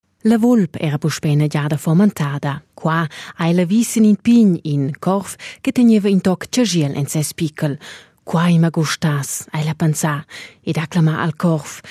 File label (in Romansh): LA VULP ERA FOMENTADA RUMANTSCH GRISCHUN